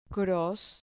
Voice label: "cros", Spanish syllable